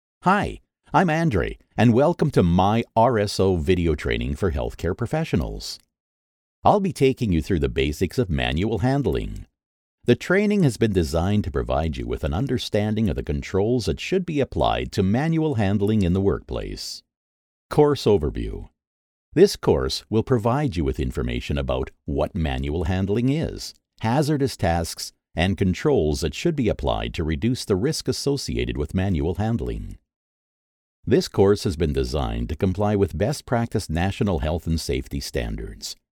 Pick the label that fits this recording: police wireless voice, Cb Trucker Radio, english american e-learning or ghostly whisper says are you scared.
english american e-learning